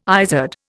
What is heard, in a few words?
"izard", Indian